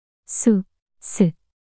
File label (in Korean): "수스" 낱소리 여자